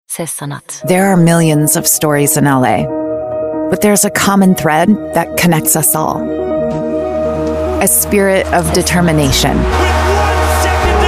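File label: English, w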